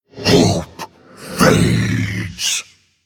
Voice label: Male Demon Attack